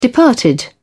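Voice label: "departed", British English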